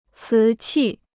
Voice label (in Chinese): "瓷器", Chinese